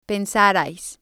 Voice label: "pensarais", spanish

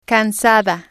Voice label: "casada", spanish

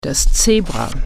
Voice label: "zebra", German

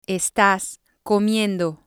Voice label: "estas comiendo", spanish

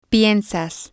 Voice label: "piensas", spanish

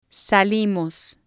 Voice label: "salimos", Spanish

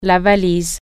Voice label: "suitcase", French

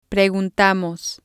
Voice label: "preguntamos", spanish

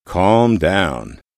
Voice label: "calm down", English

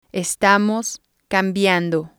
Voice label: "estamos cambiando", Spanish

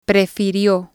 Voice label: "prefirio", spanish

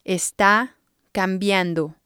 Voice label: "esta cambiando", spanish

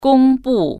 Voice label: announce

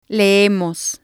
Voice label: "leemos", spanish

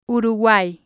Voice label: "uruguay", Spanish

